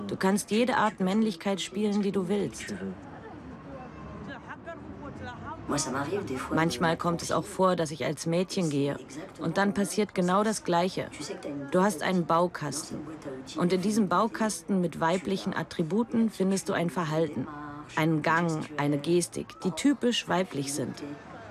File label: voiceover, frauen; arte